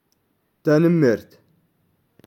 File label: "Tanimert", Touareg; Grazie